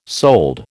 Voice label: "sold", American